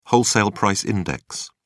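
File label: "wholesale price index", British English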